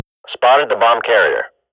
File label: radio bot bomb spot, swat; Global Offensive; player vo